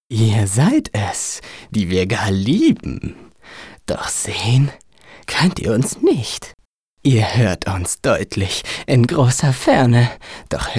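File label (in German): psycho, Männer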